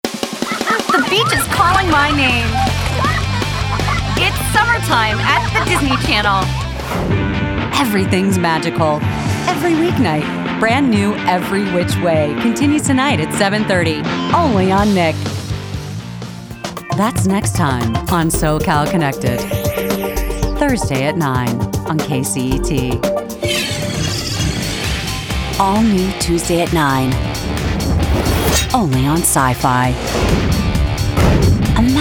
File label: Promo